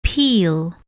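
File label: "peel", English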